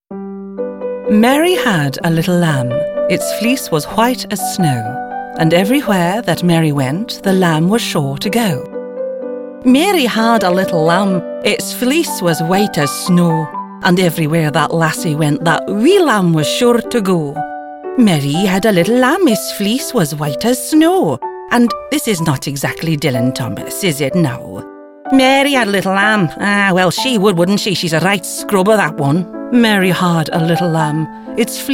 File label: ACCENTS